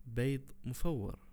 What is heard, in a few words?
boiled egg, arabic words audio